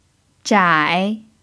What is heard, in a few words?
"zhai3", Chinese pinyin